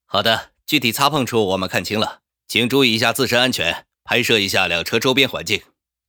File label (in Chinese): 男声